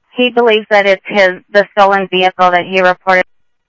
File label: scanner audio trunk